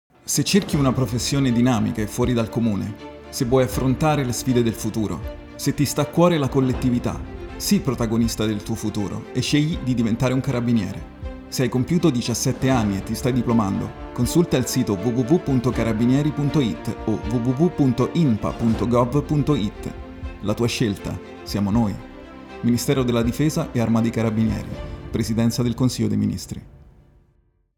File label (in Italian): Radio; Arruolamento Carabinieri